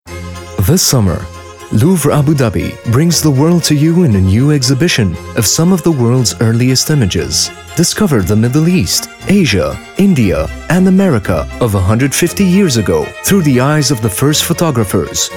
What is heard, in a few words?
english promos